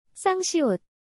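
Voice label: "ㅆ", Korean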